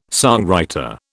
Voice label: "songwriter", British

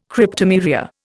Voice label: "Cryptomeria", Indian

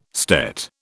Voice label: "stet", British

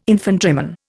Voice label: "infantryman", Indian

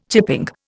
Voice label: "chipping", Indian

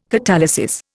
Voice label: "catalysis", Indian

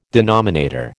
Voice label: "denominator", American

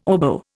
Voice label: "oboe", Indian

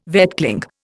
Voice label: "vetchling", Indian

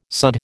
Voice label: "sudd", American

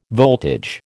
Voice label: "voltage", American

